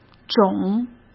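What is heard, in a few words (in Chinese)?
"种", Mandarin Chinese; hsk; chinese-flashcards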